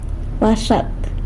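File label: "baxat" toad, San Lucas Quiaviní Zapotec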